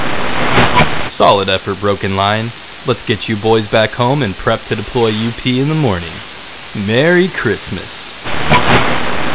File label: end sequence radio; nzp; warehouse